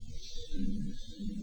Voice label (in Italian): possibile voce non umana sembrerebbe dire stasy